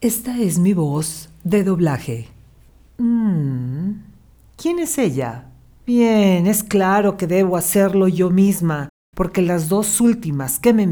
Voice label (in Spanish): Doblaje, locutora